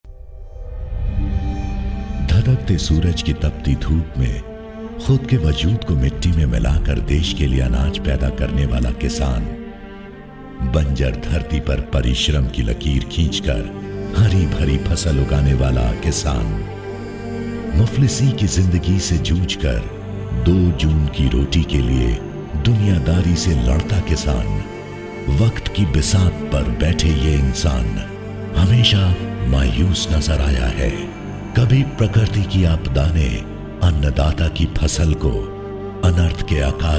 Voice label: narration hindi